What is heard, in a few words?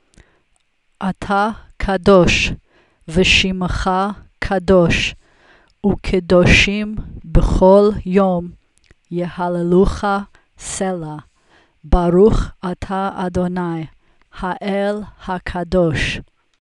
Kdushat HaShem read; Bnai Mitzvah Requirements; Service Practice